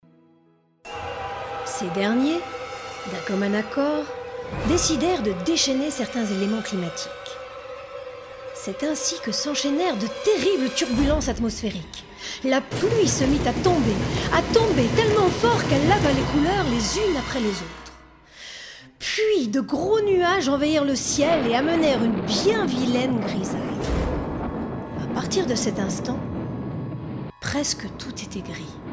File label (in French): Narration Conte Voix percutante; Magicienne